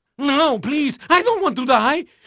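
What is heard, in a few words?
Portuguese male, voice; Pirates Of The Caribbean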